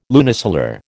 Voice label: "lunisolar", American